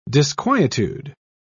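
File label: "disquietude", British English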